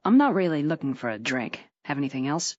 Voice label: fem